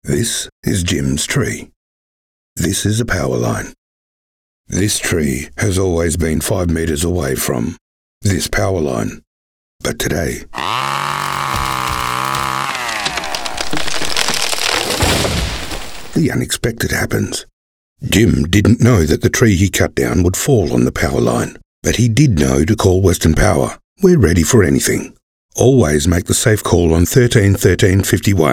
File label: radio campaign; WESTERN POWER